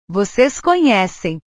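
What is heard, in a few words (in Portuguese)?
"vocês conhecem", Portuguese; portugues/verbos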